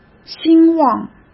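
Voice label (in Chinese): "兴旺", Mandarin Chinese; hsk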